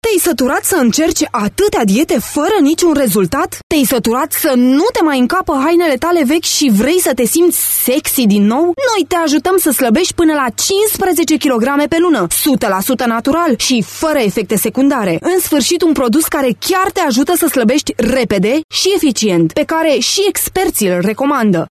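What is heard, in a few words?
Romanian, VO, female, teleshopping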